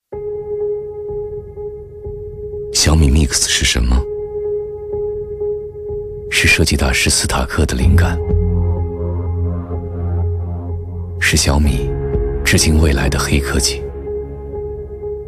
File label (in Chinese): 男, 广告; 小米MIX; 全面屏概念手机; 致敬未来的黑科技